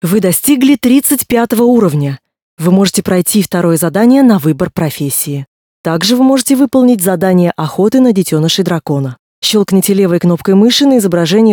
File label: tutorial voice ru; lineage2